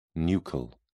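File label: "nuchal", British English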